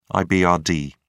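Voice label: "ibrd", British English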